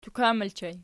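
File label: "tukan" ergative plural, Archi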